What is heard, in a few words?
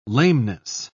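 "lameness", British English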